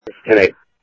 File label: Security, scanner audio